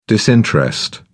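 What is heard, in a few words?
"disinterest", English, British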